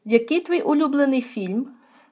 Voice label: dialogue, Ukrainian